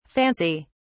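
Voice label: "fancy!", British English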